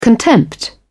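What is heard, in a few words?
"contempt", British English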